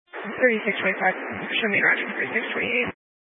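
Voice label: Police 1 Dispatch, Scanner audio recording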